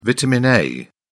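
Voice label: "vitamin a", British English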